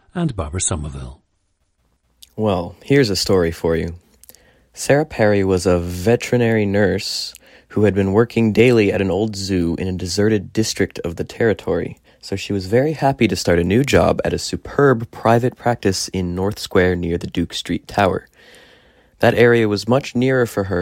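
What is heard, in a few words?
virginia, International Dialects of English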